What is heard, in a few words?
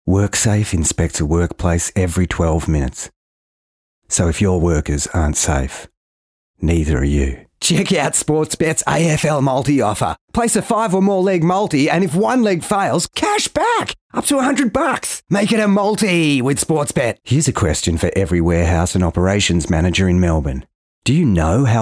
RADIO